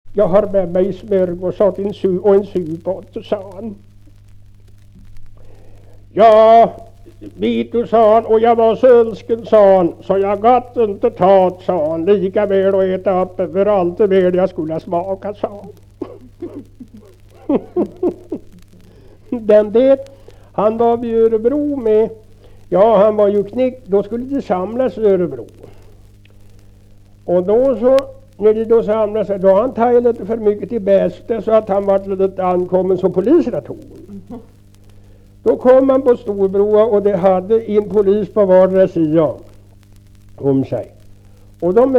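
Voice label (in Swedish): Kräklinge Närke, dialekter, svenska sagor; Folkminnen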